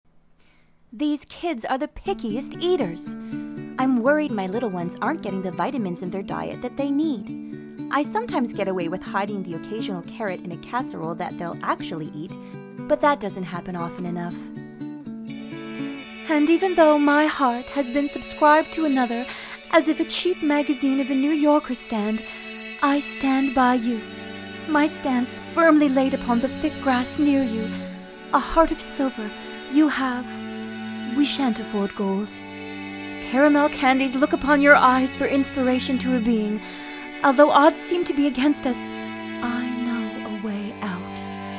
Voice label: voice over